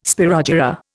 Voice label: "spirogyra", Indian